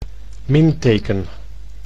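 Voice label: "minteken", Dutch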